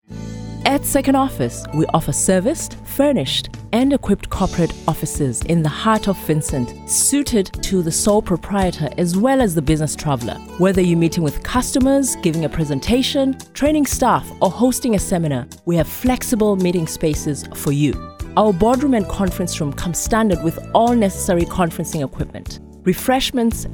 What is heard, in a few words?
Radio AD